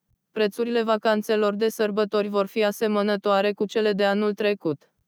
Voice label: synth